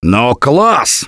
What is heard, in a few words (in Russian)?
Nice Job, left4dead2 russian, Survivor Voice